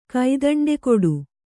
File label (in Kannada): "ಕೈದಂಡೆಕೊಡು", Kannada